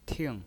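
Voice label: "ting", pinyin voice, fourth tone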